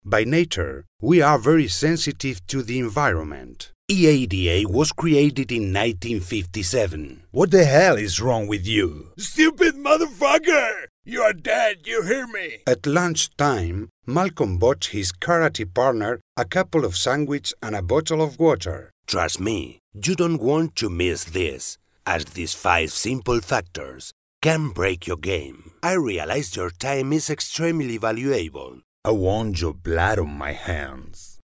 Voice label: English Castilian Accent